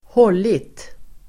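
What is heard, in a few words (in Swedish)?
"hållit", Swedish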